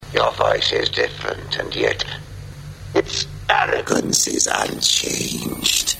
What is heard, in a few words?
Davros talking